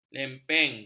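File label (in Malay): "lempeng", Malay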